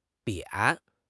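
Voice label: "扁", Chinese; HSK6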